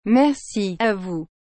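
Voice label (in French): "Merci à vous", French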